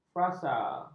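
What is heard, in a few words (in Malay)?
"frasa", Malay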